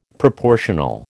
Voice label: "proportional", American